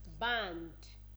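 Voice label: "band", Nigerian Pidgin, female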